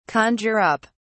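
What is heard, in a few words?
"conjure up", British English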